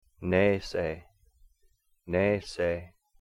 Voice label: "nees-ee", it is far, Cahto; "n" in "nay-say"